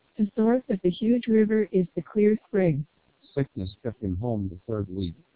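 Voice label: American, speech, SNR=10dB, TWELP 1600 bps, NCSE Enabled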